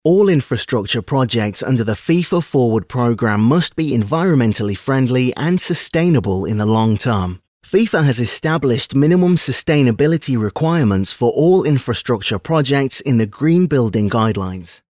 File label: Animation; FIFA